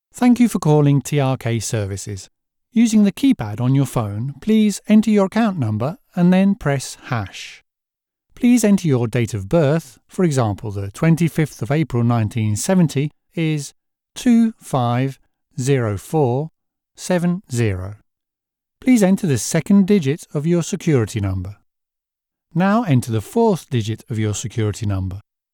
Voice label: IVR, voice-over; Genuine British Received pronunciation, cockney and Scottish